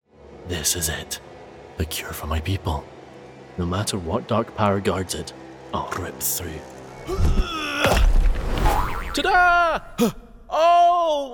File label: Character